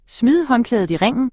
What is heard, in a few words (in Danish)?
smide håndklædet i ringen, Danish